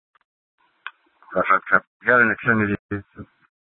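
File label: police department dispatch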